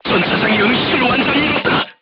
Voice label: voice message commander contused, korean, crew tanks